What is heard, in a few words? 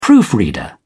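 "proofreader", British English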